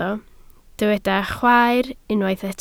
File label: "chwaer", Welsh